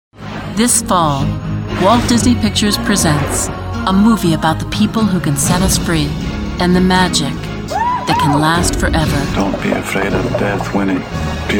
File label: movie trailer, female voice over; tuck everlasting